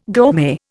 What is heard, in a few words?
"gourmet", Indian